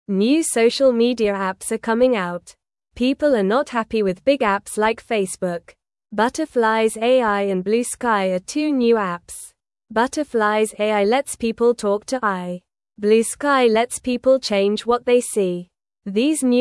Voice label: English Newsroom Beginner NORMAL Reading; New Apps Are Here to Change Social Media